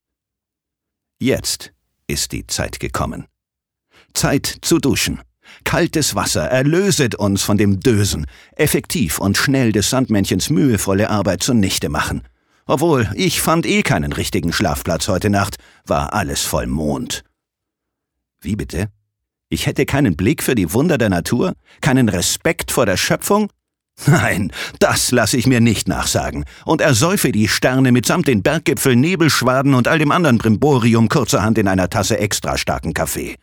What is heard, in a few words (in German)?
Schauspiel, Variabel